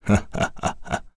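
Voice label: Vox Happy, voices heroes English; kingsraid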